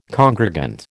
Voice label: "congregant", American